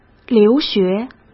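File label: "留学", Mandarin Chinese; chinese flashcards; hsk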